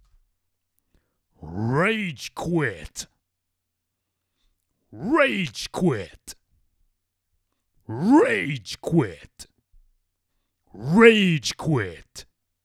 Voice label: Announcer